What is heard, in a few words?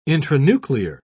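"intranuclear", English, uk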